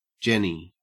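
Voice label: "jenny", Australian English; pronunciations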